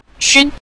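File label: "xun", Mandarin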